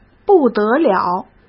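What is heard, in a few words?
"不得了", Mandarin Chinese; hsk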